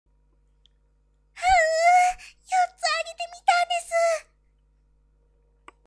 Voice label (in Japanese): onna